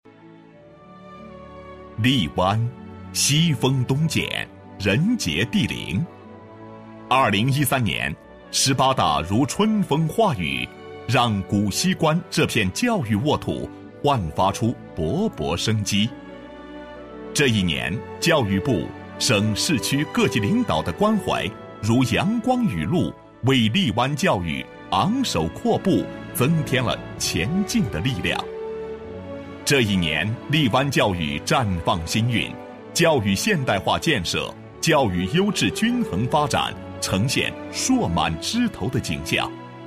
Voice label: 男7号 专题 教育局, 国语男声配音

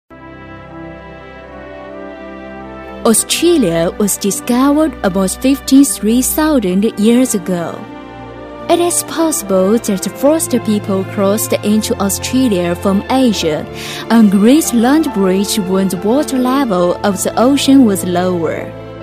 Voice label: 英女 英文专题; 发现澳大利亚

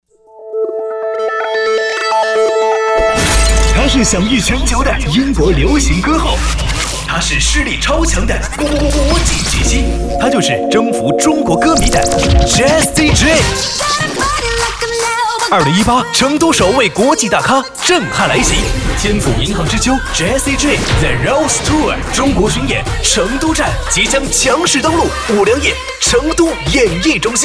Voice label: 男, 电台片头, 年轻活力; 年轻活力电台

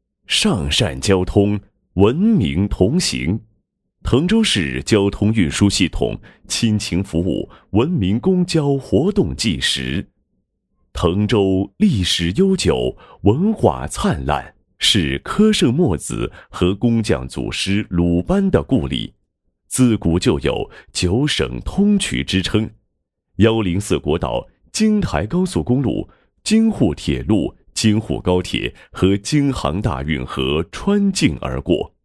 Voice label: 文明交通宣传片; 滕州

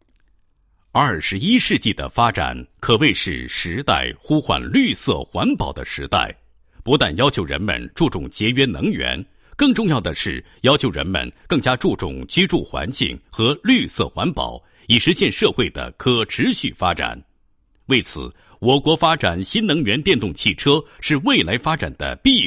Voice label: 男 企业 宣传专题, 配音; 某机电有限公司宣传专题

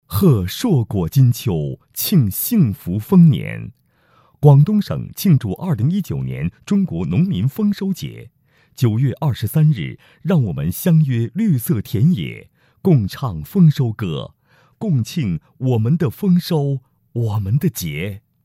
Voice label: 栏目广告; 农民丰收节

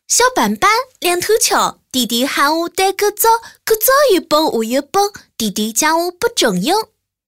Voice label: 女 方言 合肥话

